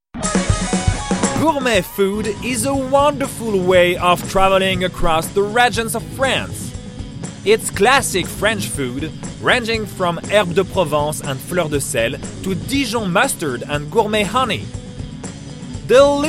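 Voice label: english us light medium enthousiaste, voix off masculine; voix off masculine naturelle, posée, souriante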